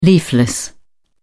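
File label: "leafless", English, British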